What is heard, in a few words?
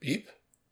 Voices intrigued; The Looker